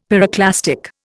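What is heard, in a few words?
"pyroclastic", Indian English; Dictionary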